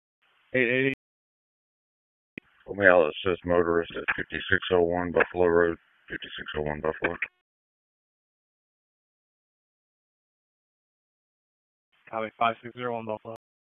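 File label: Police Northeast District Dispatch, p25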